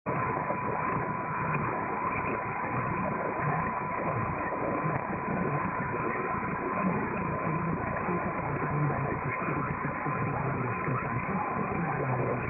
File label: 918 Radio Slovenija 1 Slovenia